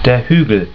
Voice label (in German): "Huegel", German